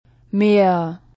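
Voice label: "Meer", German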